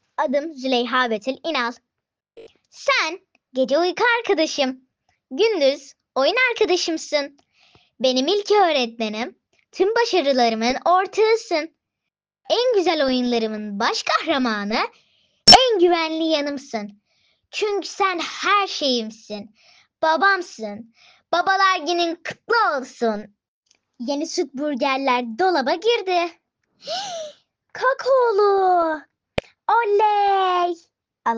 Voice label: turkish child voices

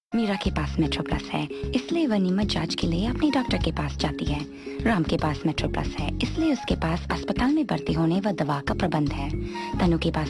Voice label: hindi commercial

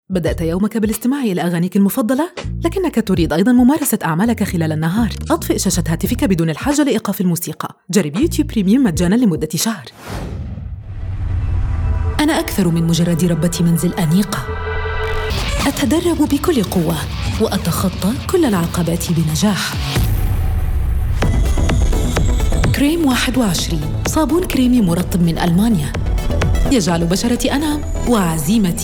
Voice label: commercial, arabic female voices

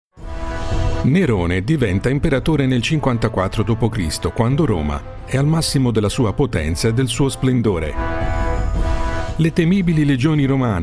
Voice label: italian male voices

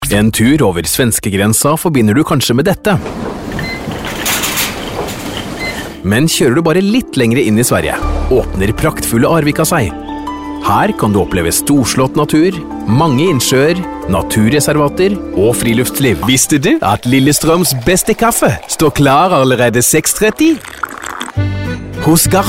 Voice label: norwegian voices

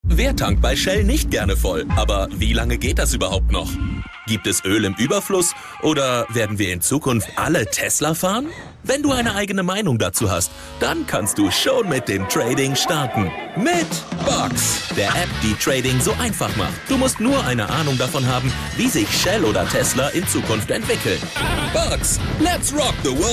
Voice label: german male voices

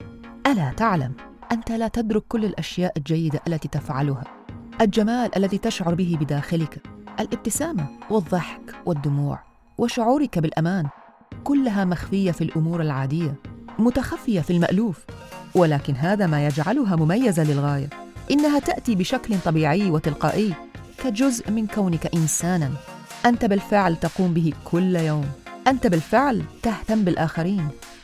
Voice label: arabic, arabic female voices

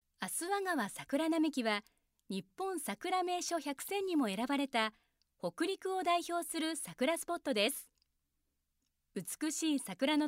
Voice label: japanese female voices

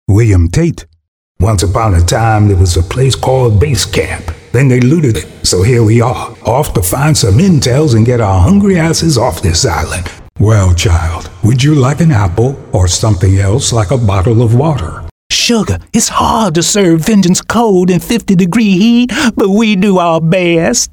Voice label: game, game dubbing male voices american accent

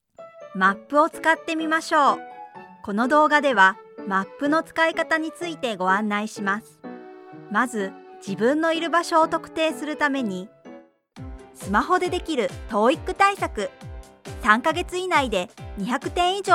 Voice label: japanese female voices

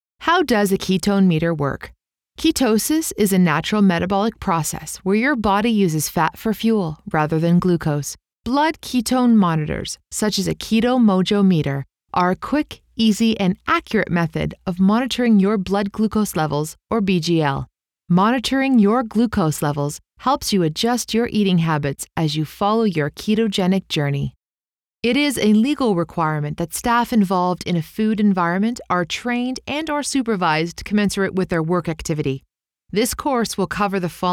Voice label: canadian, english female voices canadian accent

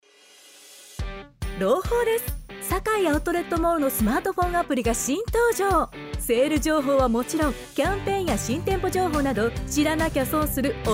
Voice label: japanese female voices

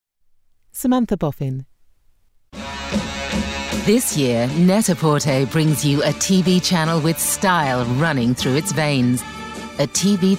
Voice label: english female voices british accent

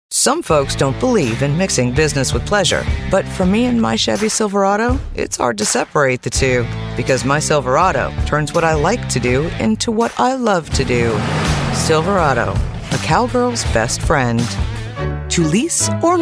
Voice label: english female voices american accent

